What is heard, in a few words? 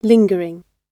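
"lingering", British English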